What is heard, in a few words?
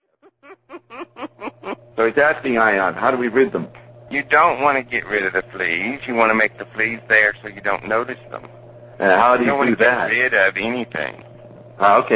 rant